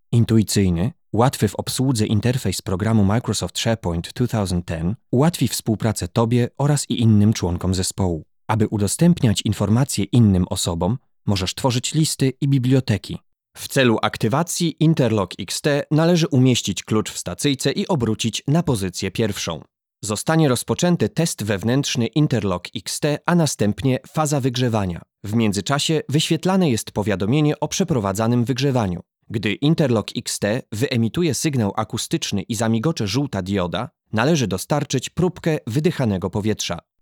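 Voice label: Polish, male, e-learning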